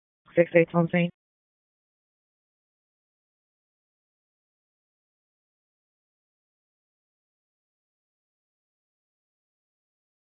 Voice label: PS TAC, p25; wake

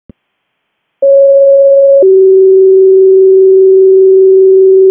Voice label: RFD ALERT, P25 recordings; wake

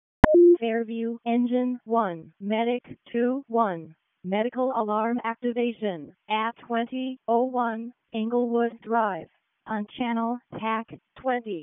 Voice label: WC EMS ALERT, p25; wake

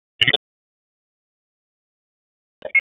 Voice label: Police North District Tac 1, p25; Raleigh; wake

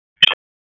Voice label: Raleigh Police Northeast District Tac, p25; wake

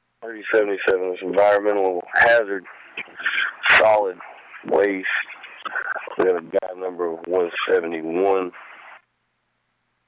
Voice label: P25 DOT Wake, recordings; NC VIPER

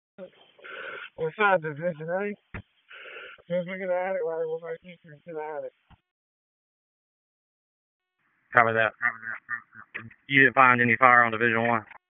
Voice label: PS TAC, p25

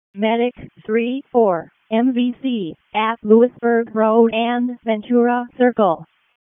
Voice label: EMS ALERT; wake; p25

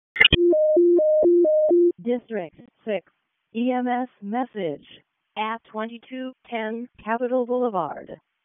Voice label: EMS ALERT, p25

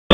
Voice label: Police Downtown District Tac 1, P25; Raleigh; wake

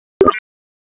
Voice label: Police Spec Ops Tac 5, p25; Raleigh; wake

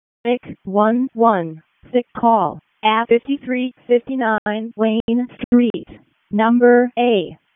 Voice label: EMS ALERT, p25; wake

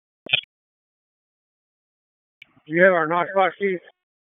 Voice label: FIRE OPS, p25; wake

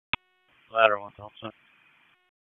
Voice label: FIRE OPS, p25; wake

